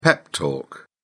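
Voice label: "pep talk", British English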